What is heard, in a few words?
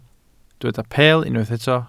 "peel", Welsh